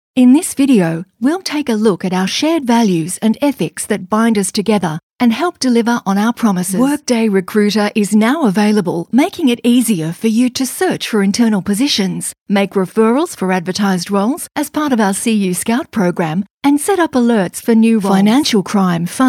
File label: Corporate